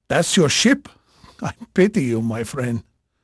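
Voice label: Portuguese male, voice; Pirates Of The Caribbean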